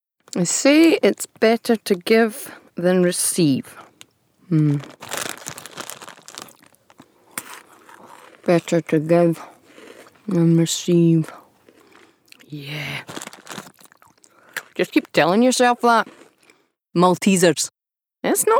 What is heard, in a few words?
Commercial; Malteasers